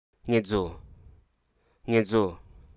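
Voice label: Hruso Aka; crest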